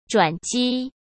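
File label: "转机", Mandarin Chinese; hsk; chinese-flashcards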